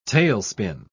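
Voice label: "tailspin", English word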